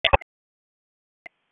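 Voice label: Police Southeast District Dispatch; Raleigh; wake; p25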